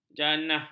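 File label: "jannah", Malay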